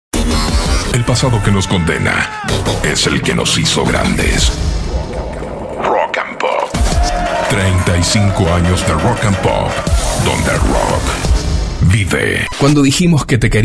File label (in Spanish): Grave Rocker Variada, voces masculinas